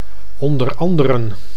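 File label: "onder anderen", Dutch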